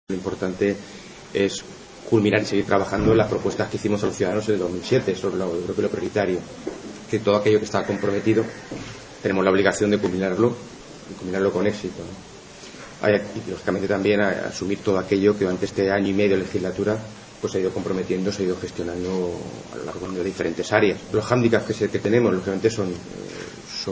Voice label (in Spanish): noticias